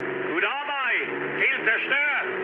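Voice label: German bomb success mood low, speech; War Thunder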